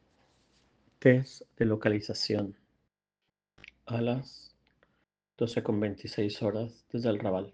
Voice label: Voice message